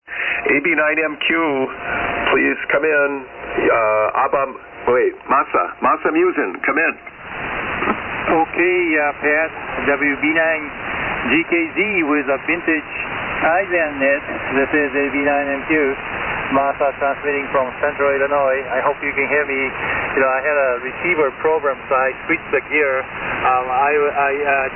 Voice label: Kenwood T520 MC-50 Mic & Kenwood TL-922A; Normal, IL.